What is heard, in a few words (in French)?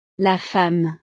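"la femme", French; la mujer; familia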